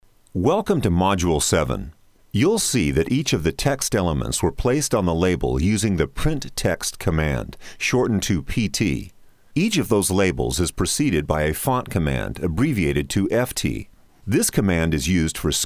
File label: E learning